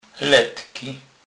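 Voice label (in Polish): "letky", Kurpie dialect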